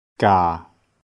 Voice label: "gaa4", Cantonese